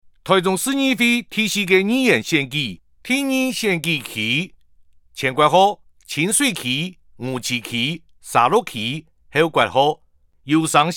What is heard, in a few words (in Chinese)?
前言, 客語; 臺中市; 市議員; 第02選區; 有聲公報